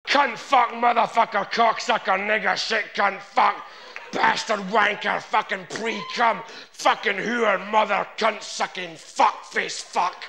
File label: swear sample